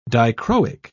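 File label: "dichroic", British English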